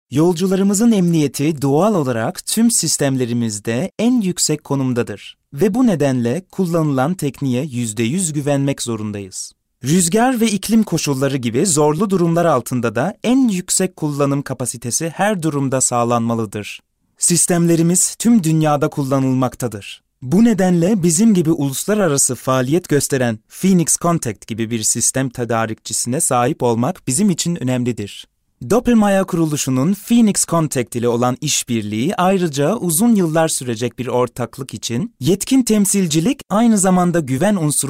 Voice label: TR Kommentar Neutral

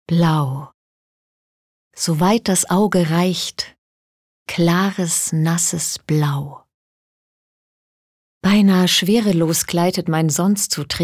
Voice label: Melancholisch

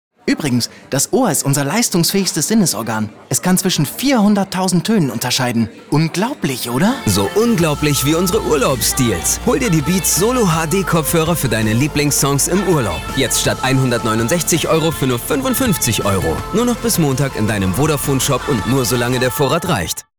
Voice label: Dynamisch

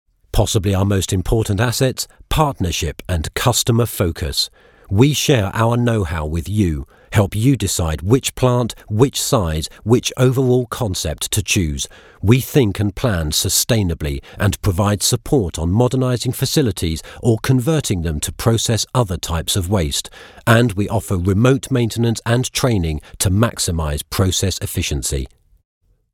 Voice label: Eng UK Kommentar Dynamisch

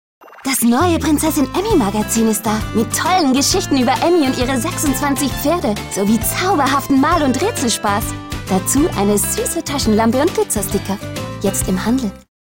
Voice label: Freundlich; Fremdtake

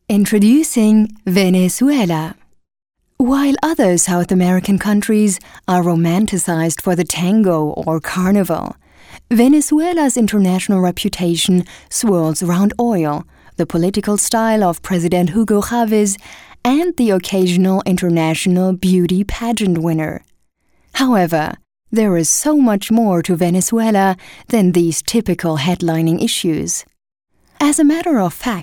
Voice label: Eng US Kommentar Neutral

